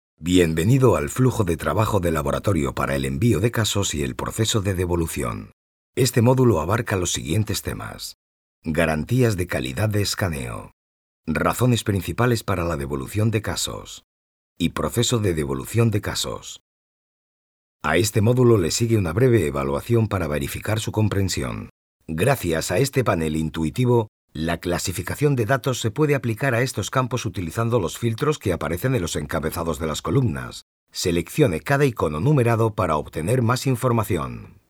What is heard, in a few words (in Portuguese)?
elearning software